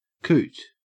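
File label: "coot", Australian English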